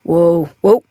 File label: Horse Stop Walk Adult; Duviri